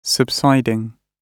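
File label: "subsiding", British English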